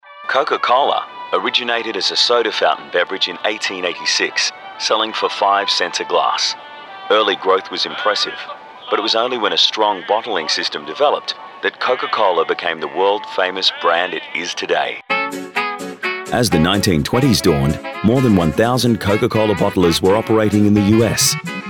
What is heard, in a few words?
Corporate Narration